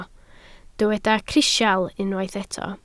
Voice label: "crisial", Welsh

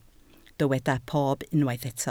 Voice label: "pob", Welsh

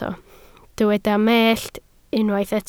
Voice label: "mellt", Welsh

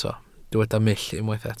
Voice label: "mull", Welsh

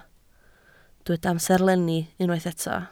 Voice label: "amserlenni", Welsh

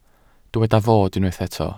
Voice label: "fod", Welsh

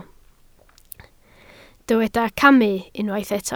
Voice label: "camu", Welsh

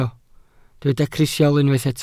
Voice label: "crisial", welsh

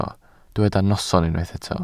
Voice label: "noson", Welsh

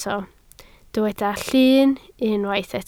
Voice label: "llun", Welsh

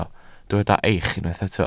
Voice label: "eich", Welsh

